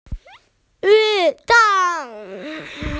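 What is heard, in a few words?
"lv4deng1", Mandarin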